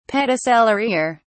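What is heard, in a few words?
"pedicellariae", English, British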